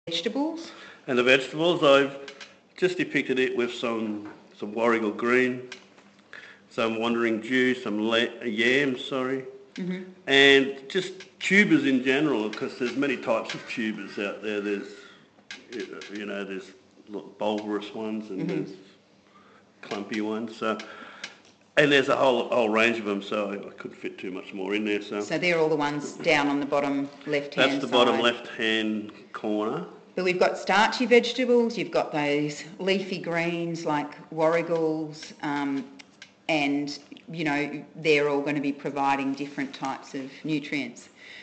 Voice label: narration